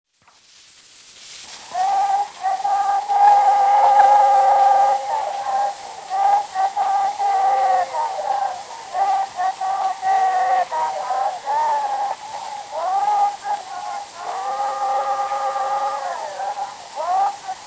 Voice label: Zulu Recordings sound recording; Father Franz Mayr